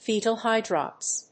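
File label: "Fetal hydrops", English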